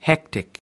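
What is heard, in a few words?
"hectic", American English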